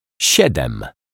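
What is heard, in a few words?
"siedem", Polish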